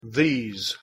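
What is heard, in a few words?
pronunciation "these", English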